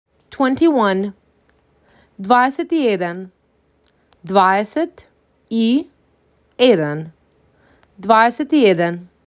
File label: MAC 6, macedonian phrasebook